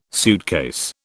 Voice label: "suitcase", British